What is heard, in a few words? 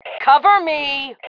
COVERME, FEM